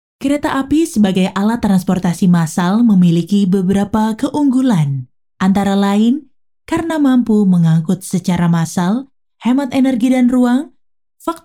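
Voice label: Indonesian, female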